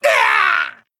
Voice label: Male Goblin Death

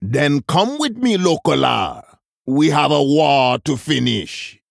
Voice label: Male Troll

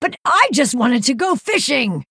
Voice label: Female Night Elf

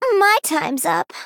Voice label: Female Gnome Hero Death

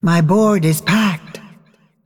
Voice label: Female Dragon ERROR FULL MINIONS

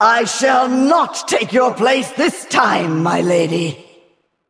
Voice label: Female Val'kyr Start

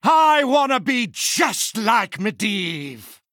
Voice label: Male Human Play

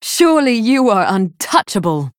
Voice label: Female Night Elf First Place

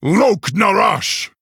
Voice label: Male Orc Attack

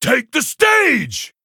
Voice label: Male Tuskarr Attack

